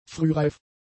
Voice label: "frühreif", German; adjectives